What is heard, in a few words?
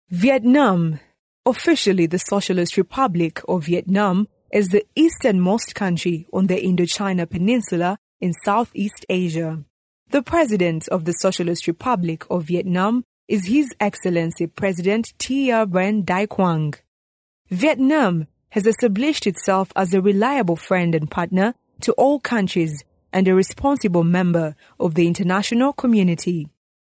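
English Female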